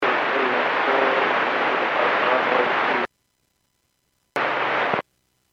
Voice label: Airports 122.7, Radio; KSTE